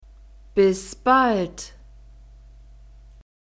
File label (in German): bis bald, German